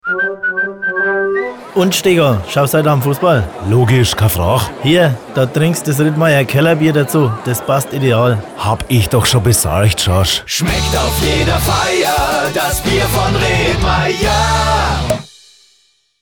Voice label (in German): Dialogspot Jingle Abmischung; Rittmayer